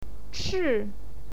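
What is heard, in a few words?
"chi", Mandarin Chinese, fourth tone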